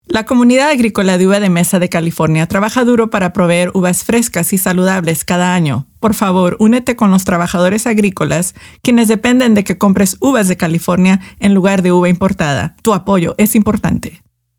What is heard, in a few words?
radio spot spanish